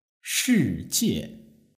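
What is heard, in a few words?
"shijie" Chinese word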